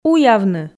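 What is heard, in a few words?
"уявны", Komi, female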